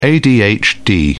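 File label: "adhd", British English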